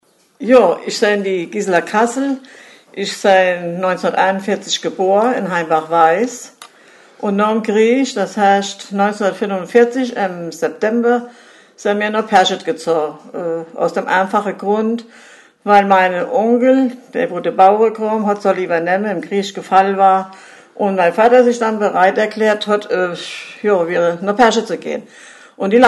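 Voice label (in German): vorstellung, O-Ton Hunsrück; Benzweiler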